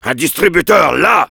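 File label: Heavy need dispenser, French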